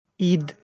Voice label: "Id", Kurukh